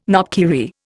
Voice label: "knopkierie", Indian